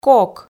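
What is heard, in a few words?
"кок", Komi, female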